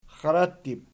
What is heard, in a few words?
"xxarattib", Archi